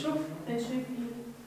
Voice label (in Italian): sotto voce, Mi provochi; AbbaziaVangadizza